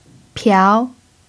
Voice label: "piao2", mandarin pinyin